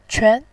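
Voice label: "quan", Mandarin Chinese, second tone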